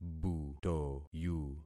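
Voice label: "butoyu", concatenated syllables; Affixing experiment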